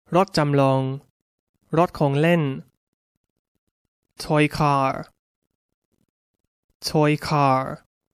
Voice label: "toy car", English; Toys